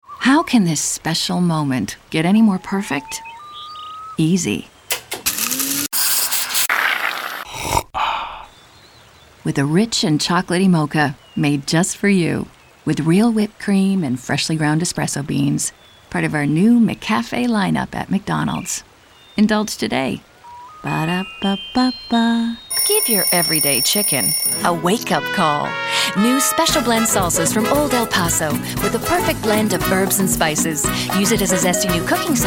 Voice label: Female Commercial Voices characters; Female VO with Home Studios